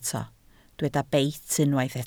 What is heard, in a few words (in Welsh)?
"beit", Welsh